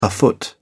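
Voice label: "afoot", British English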